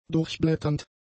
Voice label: "durchblätternd", German; adjectives

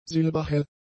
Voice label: "silberhell", German; adjectives

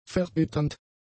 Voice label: "verbitternd", German; adjectives

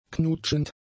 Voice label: "knutschend", German; adjectives

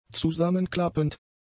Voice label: "zusammenklappend", German; adjectives

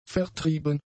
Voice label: "vertrieben", German; adjectives

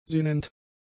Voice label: "sinnend", german; adjectives

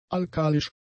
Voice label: "alkalisch", German; adjectives

